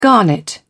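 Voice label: "garnet", British English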